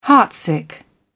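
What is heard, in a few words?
"heartsick", British English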